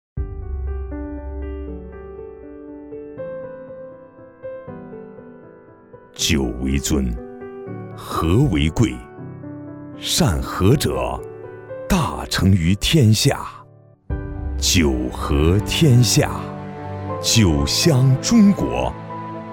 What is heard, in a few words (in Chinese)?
男 广告 茅台